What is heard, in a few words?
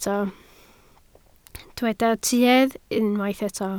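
"tuedd" Welsh, spoken word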